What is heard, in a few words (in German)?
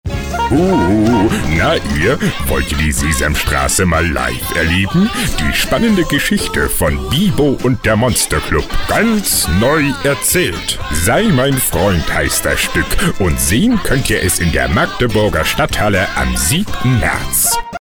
Trickstimme; Samson